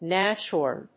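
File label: "nashorn", English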